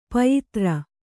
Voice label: "ಪಯಿತ್ರ", Kannada

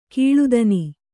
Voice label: "ಕೀಳುದನಿ", Kannada

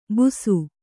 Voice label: "ಬುಸು", Kannada

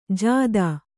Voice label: "ಜಾದಾ", Kannada

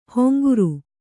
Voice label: "ಹೊಂಗುರು", Kannada

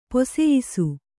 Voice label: "ಪೊಸಯಿಸು", Kannada

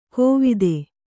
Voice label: "ಕೋವಿದೆ", kannada

